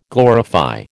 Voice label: "glorify", American